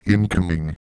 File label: incoming, Microsoft Sam, Worms speechbanks